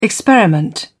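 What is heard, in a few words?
"experiment", English, British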